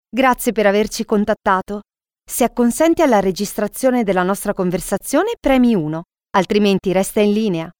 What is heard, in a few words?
italian ivr